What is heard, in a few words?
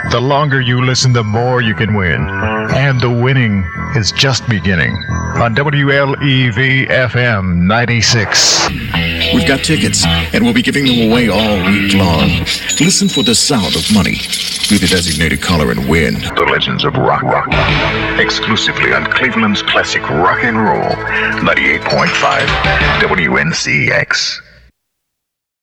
Radio Imaging